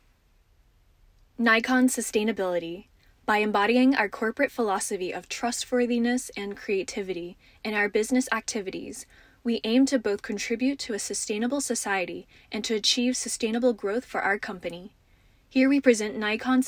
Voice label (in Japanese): 企業説明風